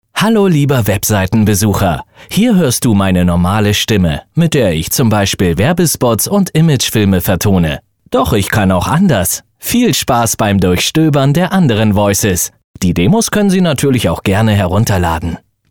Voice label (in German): Neutral